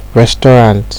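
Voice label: "restuarant", Nigerian Pidgin, male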